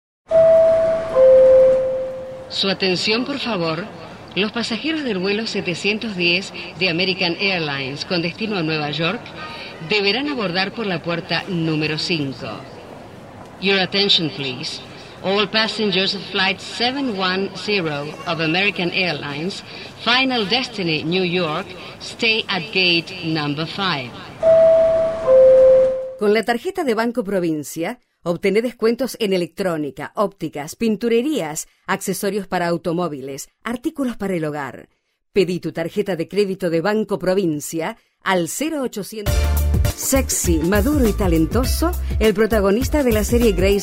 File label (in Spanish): Locutora Argentina